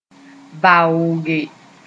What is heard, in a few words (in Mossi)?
"vaooge", moore